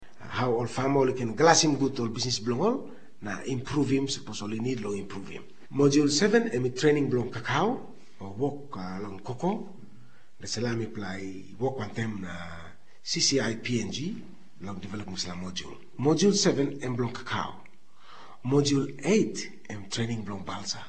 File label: Tok Pisin; Mama Graun